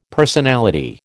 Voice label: "personality", American